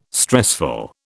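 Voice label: "stressful", British English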